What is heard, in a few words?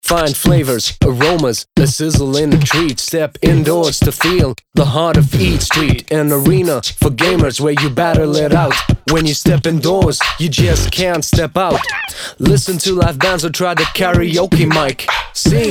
english others